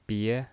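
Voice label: "bie", Mandarin Chinese, second tone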